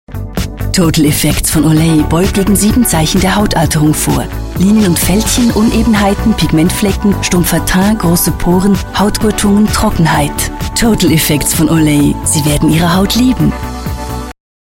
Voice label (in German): TV Spot Deutsch, sprecherin; Total Effects Olay